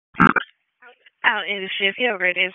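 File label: EMS DISP, radio; wake p25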